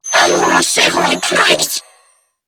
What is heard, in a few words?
Female, Grineer; New War; Narmer; Patrol